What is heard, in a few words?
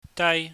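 "daai", Cantonese, high tone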